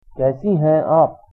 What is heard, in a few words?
how are you, hindi; कैसी हैं आप?; kaisī haiṅ āp?